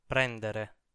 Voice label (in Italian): "prendere", Italian